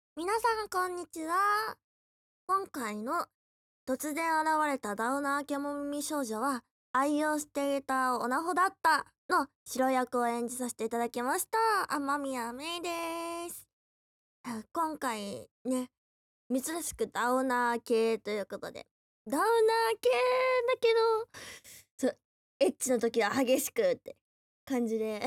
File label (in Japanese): フリートーク